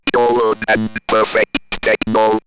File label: Robot Perfect Techno, robot vox; X-Static Goldmine